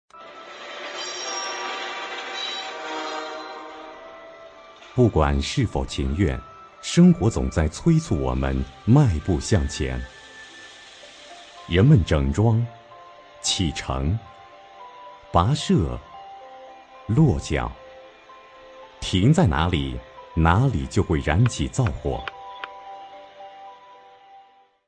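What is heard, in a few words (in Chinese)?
男纪录片; 舌尖上的中国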